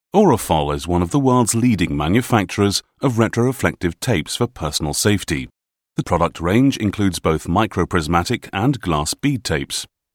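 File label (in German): British Imagefilm, englisch; sprecher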